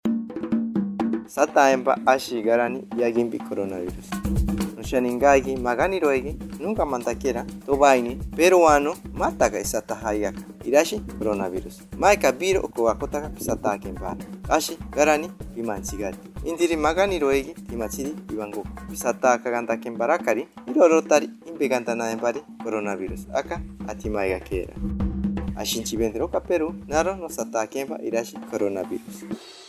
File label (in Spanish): matsigenka cusco, spot de radio; vacuna contra el coronavirus; vacunate para protegerte del coronavirus